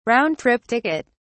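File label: "round trip ticket", British English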